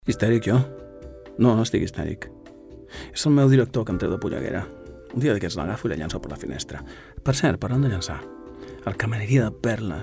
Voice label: male catalan events comercial; ideasonora

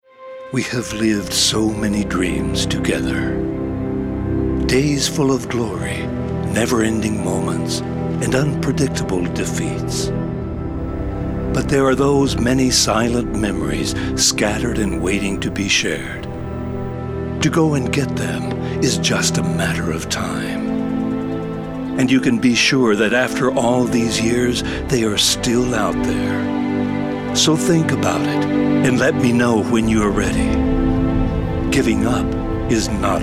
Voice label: male american english sport comercial; ideasonora

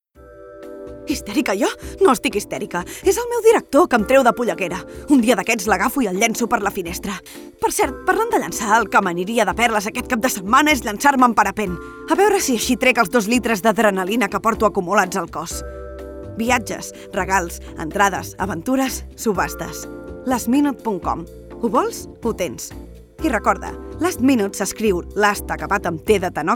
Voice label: female catalan outlet brand comercial; ideasonora

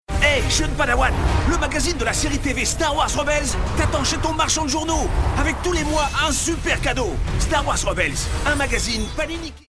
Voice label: male french magazine comercial; ideasonora

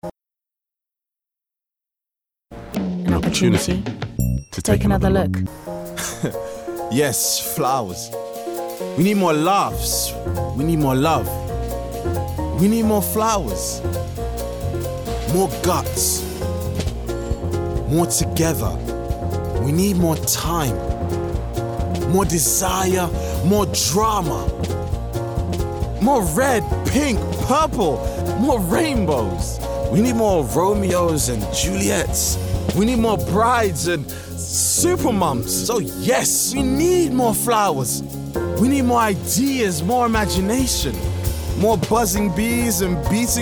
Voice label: male german comercial; ideasonora

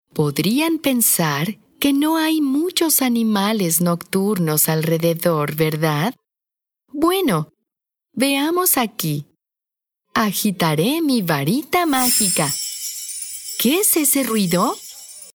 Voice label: female Mexican tv comercial

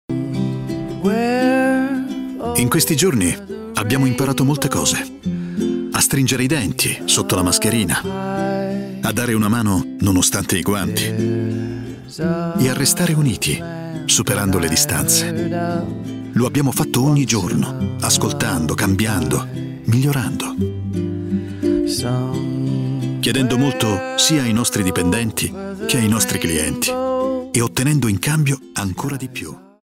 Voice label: male Italian tv comercial; ideasonora

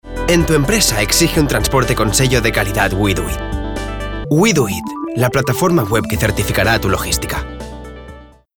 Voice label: male spanish transport comercial; ideasonora

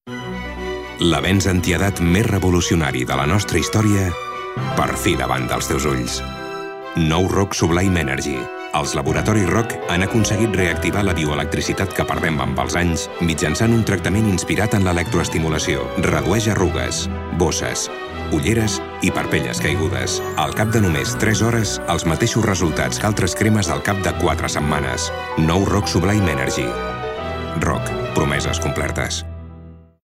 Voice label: male catalan beauty comercial; ideasonora

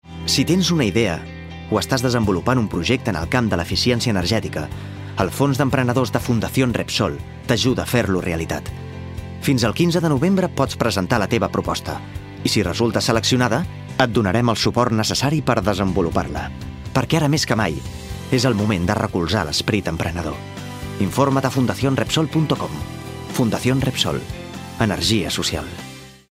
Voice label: male catalan gas comercial; ideasonora

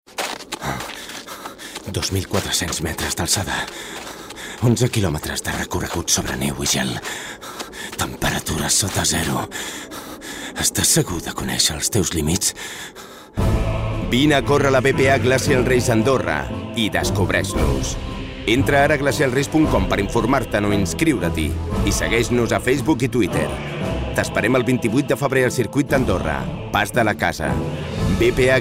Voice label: male catalan airline comercial; ideasonora

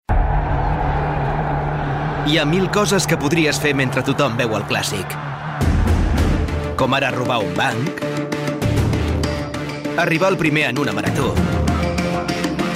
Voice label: male catalan football comercial; ideasonora

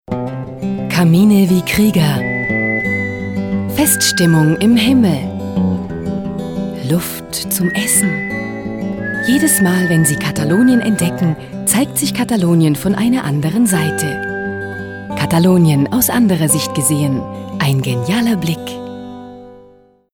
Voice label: female german travel comercial

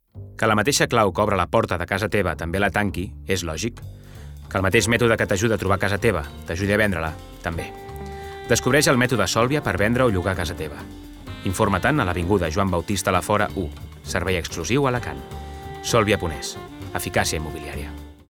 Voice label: male catalan comercial; ideasonora

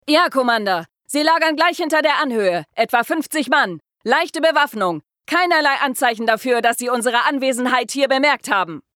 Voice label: female german commercial; ideasonora

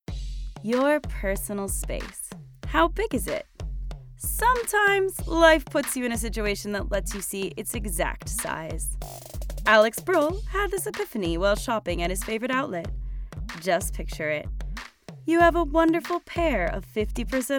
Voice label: female american english comercial; wallmart; ideasonora

